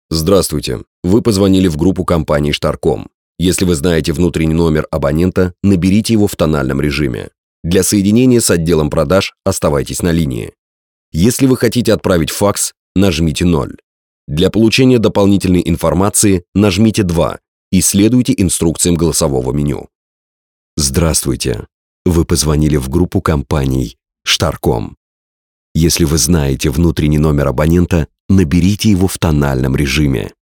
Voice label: russian male